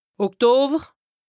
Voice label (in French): "Oktower", lexique indispensable haut rhin, Alsace; octobre